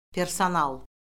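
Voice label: "personal", Azerbaijani; Orfoqrafiya Lüğəti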